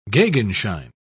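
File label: "gegenschein", British English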